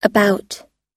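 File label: "Africa", English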